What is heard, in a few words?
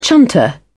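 "chunter", British English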